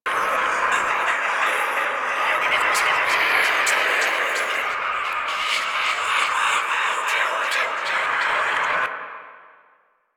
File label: Whisper; Warframe; The New War; Sealab; Stalker